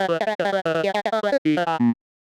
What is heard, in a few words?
medium clear jolly; voxel audio preview